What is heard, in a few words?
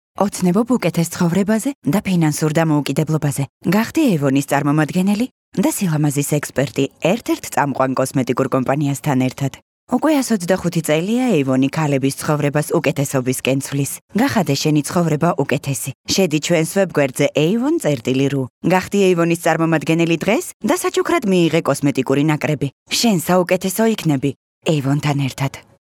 Georgian female